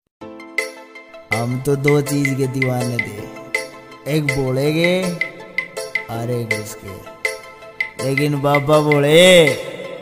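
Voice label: Devotional dialogue ringtone, bhakti ringtone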